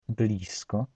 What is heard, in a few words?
polish near, polish